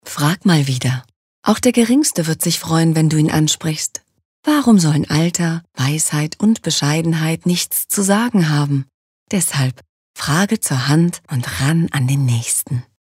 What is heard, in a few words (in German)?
Werbung Sanft